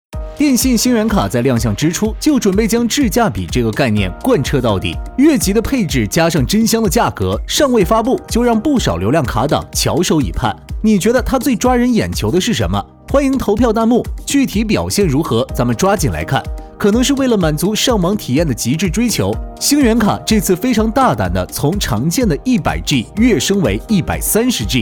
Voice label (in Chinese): 解说站风格; 流量卡